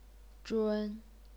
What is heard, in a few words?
"zhun1", chinese pinyin